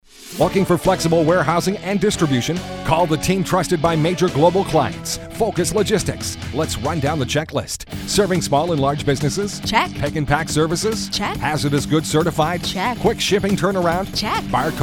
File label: radio ad; focuslogistics